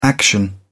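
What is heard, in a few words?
"action", British English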